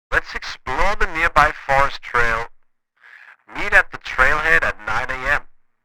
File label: Walkie talkie conversation short sentence